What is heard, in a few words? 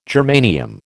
"germanium", American